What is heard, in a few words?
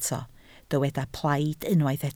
"plaid", Welsh